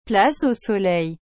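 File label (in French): "place au soleil", French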